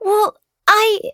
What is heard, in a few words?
act2 nonlinear neutral; lux